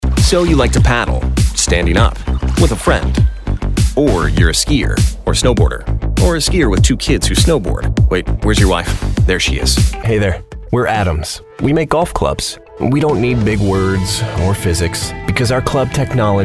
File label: COMMERCIAL